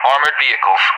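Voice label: "armored vehicles", English; DCS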